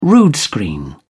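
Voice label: "rood screen", English, British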